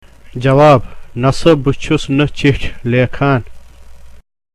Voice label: Spoken Kashmiri, Answer/Reply: No, I am not writing a letter.